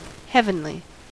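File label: "heavenly", US English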